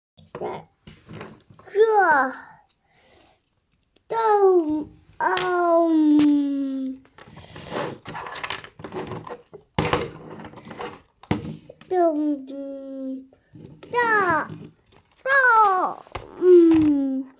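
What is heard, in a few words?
"ke ai", Mandarin Chinese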